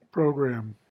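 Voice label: "program", US English; pronunciations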